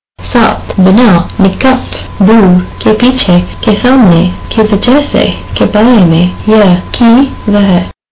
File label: hindi words